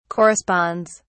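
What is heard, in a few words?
"corresponds", British English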